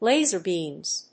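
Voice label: "Laser beams", English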